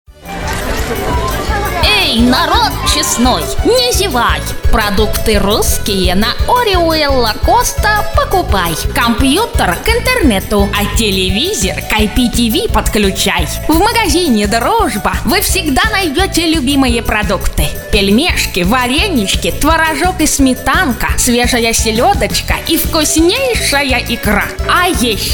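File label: grandmother voice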